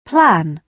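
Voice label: "plan", British English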